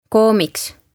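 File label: "koomiks", Estonian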